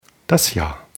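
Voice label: "Jahr", German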